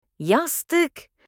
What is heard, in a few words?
cushion in turkish